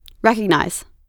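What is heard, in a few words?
"recognize", American English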